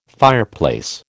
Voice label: "fireplace", American